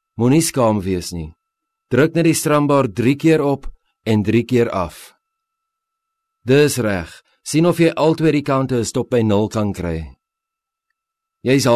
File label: Afrikaans Male Tutorial; voice over